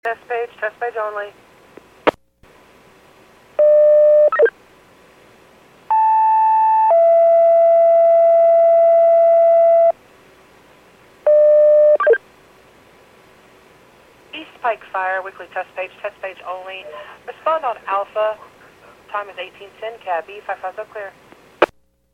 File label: PAGING